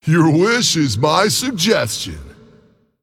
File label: Male Djinni